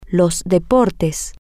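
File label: the sports, Spanish, female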